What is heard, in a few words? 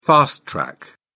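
"fast track", British English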